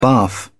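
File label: "barf", British English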